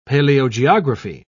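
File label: "paleogeography", British English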